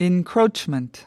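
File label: "encroachment", American English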